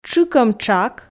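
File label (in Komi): "тшыкӧм тшак", Komi, female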